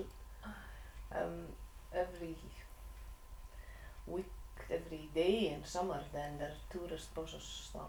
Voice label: Northern and Insular Scots, Shetland - Voe